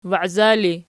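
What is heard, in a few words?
"waiza" ergative, Archi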